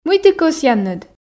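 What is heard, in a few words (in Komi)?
мый ті кӧсъянныд, Komi, female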